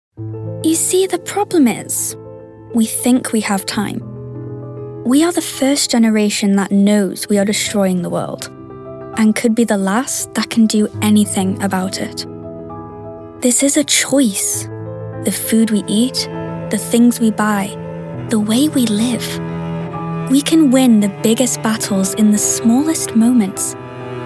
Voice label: Narration; VOICEFOX